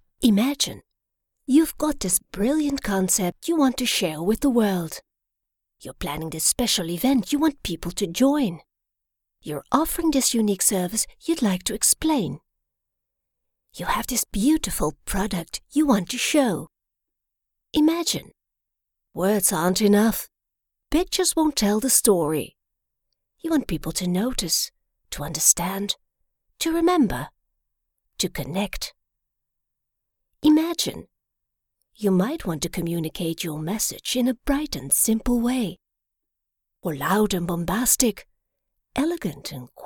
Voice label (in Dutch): vrolijk